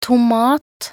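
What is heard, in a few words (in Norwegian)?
"tomat", Norwegian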